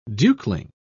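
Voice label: "dukeling", British English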